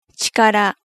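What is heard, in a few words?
"chikara", Japanese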